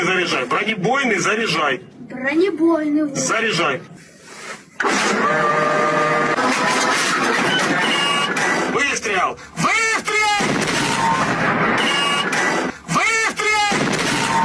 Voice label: shot out russian